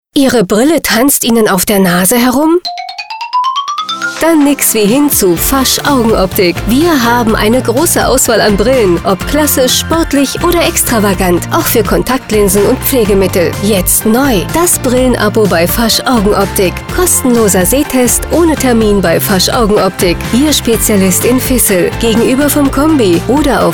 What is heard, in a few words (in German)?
Radio POS Sprecher